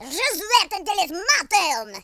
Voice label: "you'll regret that", French; speech

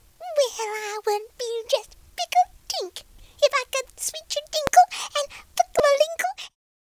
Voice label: angry, Southern Belle; speech

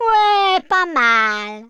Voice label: excellent, French

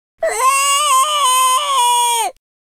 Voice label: scared, Teenage Angst; speech

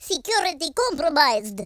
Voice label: bummer, Russki

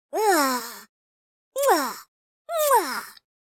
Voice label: happy, speech, Hippy

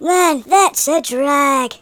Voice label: oops, speech, Hippy

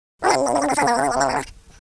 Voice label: scared; Capone

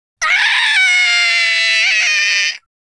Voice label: scared, Spanish; speech

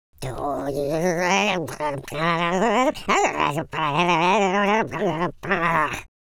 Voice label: angry, Footy Fan speech

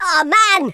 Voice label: "what the", Madchester

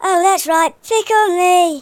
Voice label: stupid, speech, Hippy

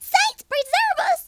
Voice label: ohdear, Southern Belle; speech

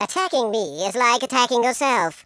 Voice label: you'll regret that, speech, Patronising

